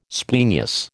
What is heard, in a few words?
"splenius", American